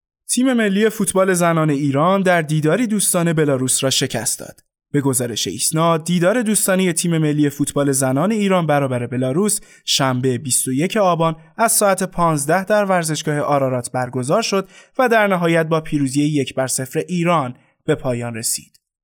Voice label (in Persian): نمونه صدای سوم خبر